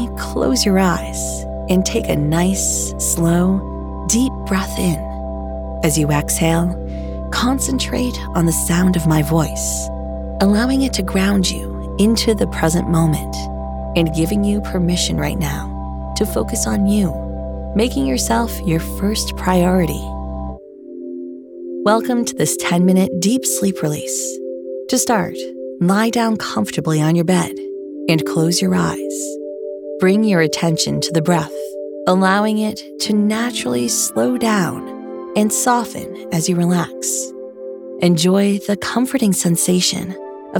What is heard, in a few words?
MEDITATION